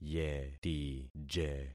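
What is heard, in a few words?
"yetije", concatenated syllables; Affixing experiment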